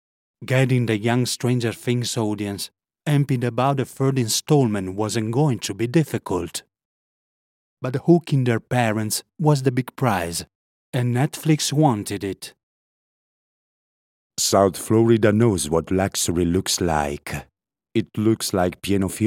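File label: ENGLISH ITALIAN ACCENT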